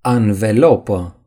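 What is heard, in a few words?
"tire", Romanian; gcompris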